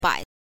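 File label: "bai", Mandarin pinyin, neutral tone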